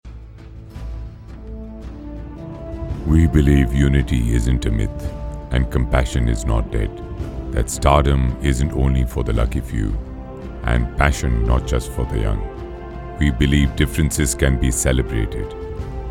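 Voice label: IPL Promo English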